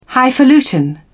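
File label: "highfalutin", English, British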